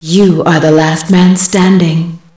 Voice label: one and only, female